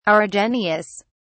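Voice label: "argenteous", English, British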